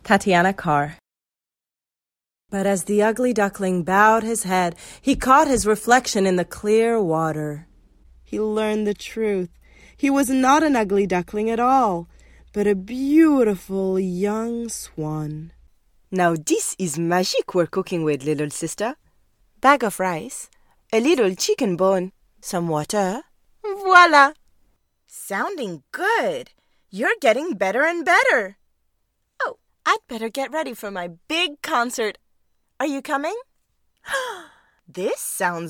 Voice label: animation; Innovative LA